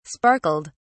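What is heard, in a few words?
"sparkled", British English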